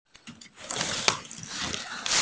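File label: "shan1yang2" Mandarin Chinese, female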